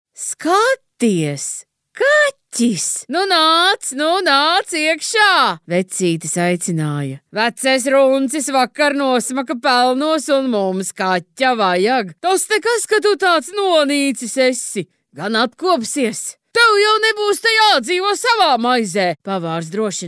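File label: Latvian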